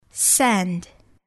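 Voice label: "sand", English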